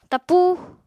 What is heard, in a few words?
"tapúu", apatani